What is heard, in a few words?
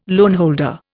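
"loanholder", Indian English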